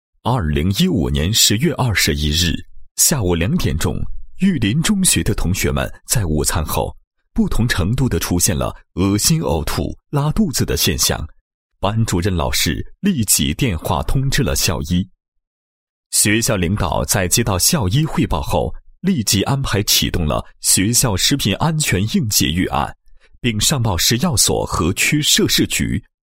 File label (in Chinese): 男 解说词 中学开展2015食品安全应急演练